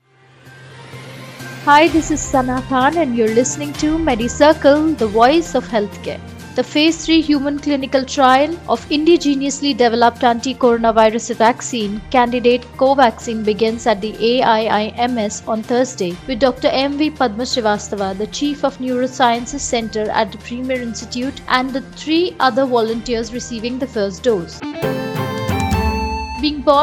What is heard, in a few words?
english news